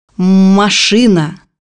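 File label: "car", Russian; bukvar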